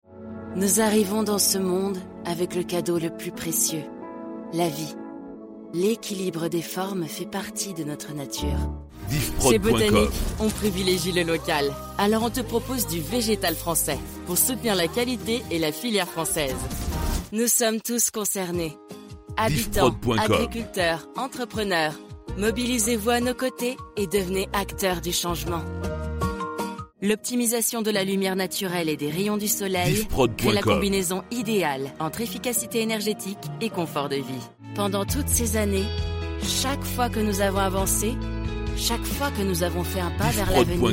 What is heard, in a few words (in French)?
FR Demo VO Institutionnelle